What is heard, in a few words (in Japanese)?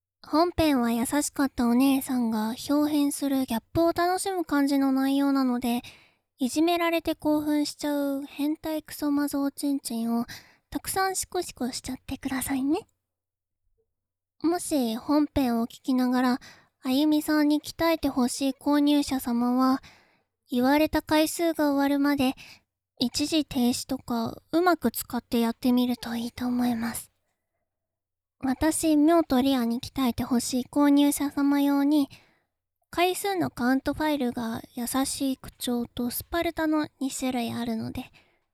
フリートーク 飲酒